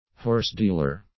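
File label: "horse dealer", English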